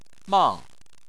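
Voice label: "mang" fourth tone, Chinese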